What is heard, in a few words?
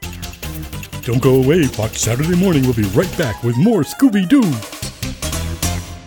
promo character fun quirky; Fox Scooby Doo